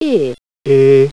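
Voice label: semi front semi close unrounded lax; london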